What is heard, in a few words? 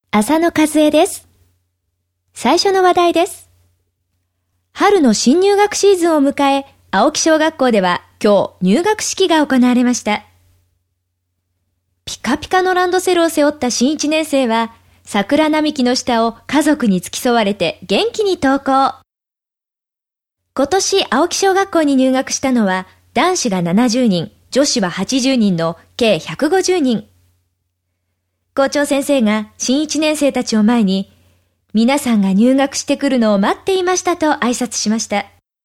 narration